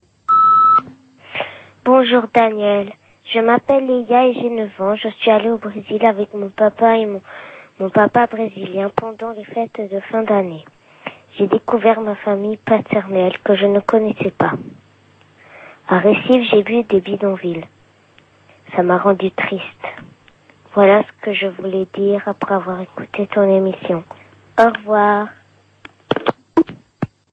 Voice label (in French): Bresil repondeur